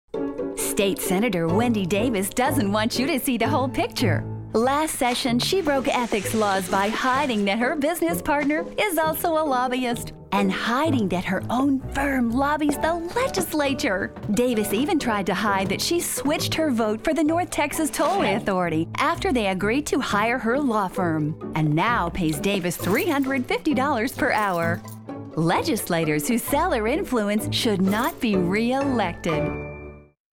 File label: voice snarky